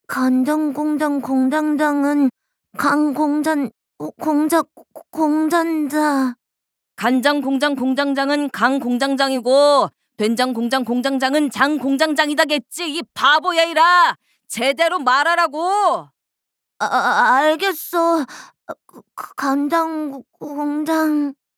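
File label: voice Korean idle; YaleAndOliver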